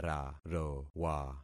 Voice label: "rarowa", concat syllables; Affixing experiment